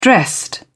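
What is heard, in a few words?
"dressed", British English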